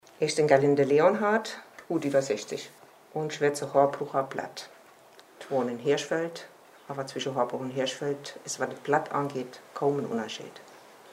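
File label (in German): vorstellung, Hunsrück; Hirschfeld; stellt sich vor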